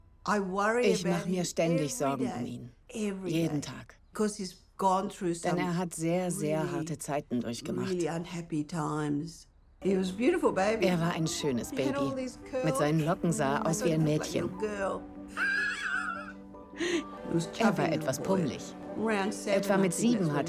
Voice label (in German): Voice-Over Sprecherin; besorgte & warmherzige Mutter